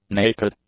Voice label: "naked", English; adjectives